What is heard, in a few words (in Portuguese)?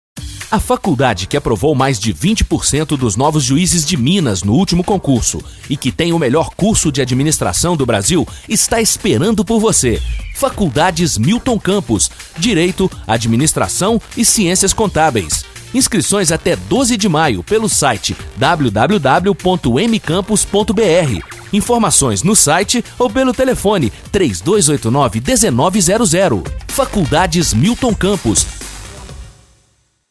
Comercial